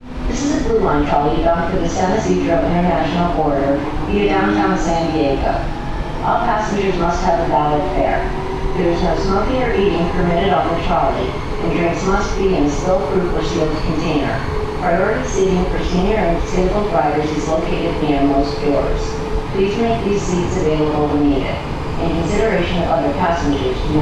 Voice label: Announce This is a Trolley English; BlueLine